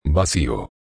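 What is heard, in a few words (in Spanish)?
"vacio", Spanish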